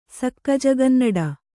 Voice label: "ಸಕ್ಕಜಗನ್ನಡ", Kannada